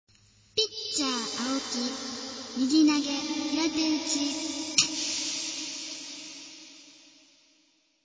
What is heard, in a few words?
announce